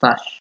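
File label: "starch", Nigerian Pidgin, male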